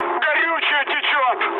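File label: tank damaged, mood high, Russian; War Thunder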